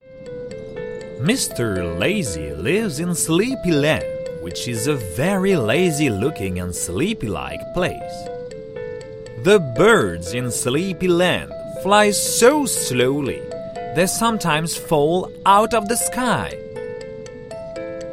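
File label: english international medium naturel, voix off masculine; voix off masculine naturelle, posée, souriante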